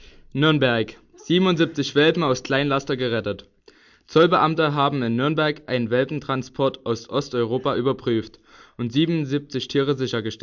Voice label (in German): Meldung